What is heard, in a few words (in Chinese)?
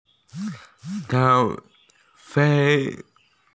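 "tou2 fa5" Mandarin Chinese, female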